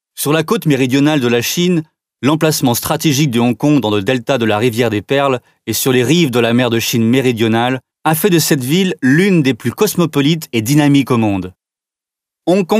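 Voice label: French male corporate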